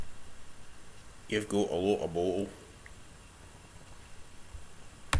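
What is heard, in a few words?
"boattle", slang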